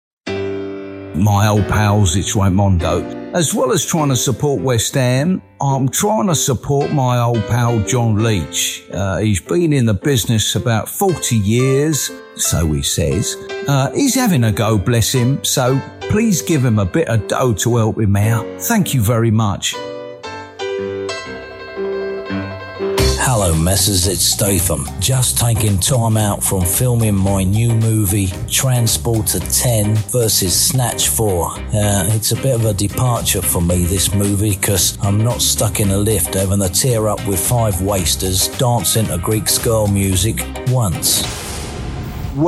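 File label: cockney essex characters, male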